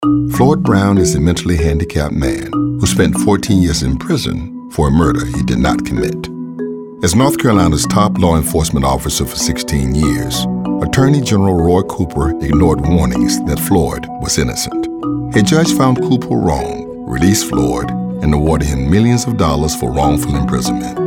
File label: Political Negative